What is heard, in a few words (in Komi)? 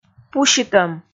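"пусьытӧм", Komi, female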